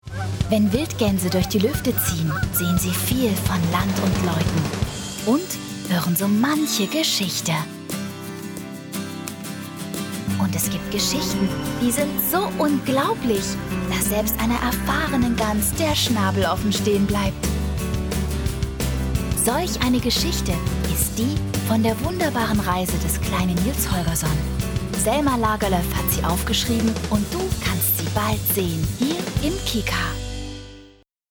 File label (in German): Trailer; KiKa; Nils Holgerson